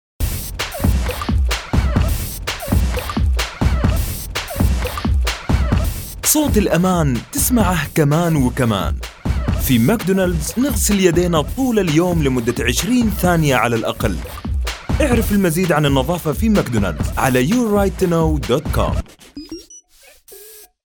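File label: AD Saudi accent Arabic, male; McDonalds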